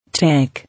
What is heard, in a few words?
"Tank", English